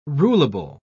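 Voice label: "rulable", English, British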